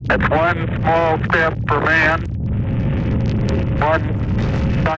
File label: voice, wavelet noise reduction; coif4